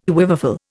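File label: "quiverful", Indian English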